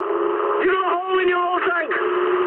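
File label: damaged oil mood high, speech English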